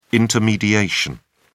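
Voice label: "intermediation", British English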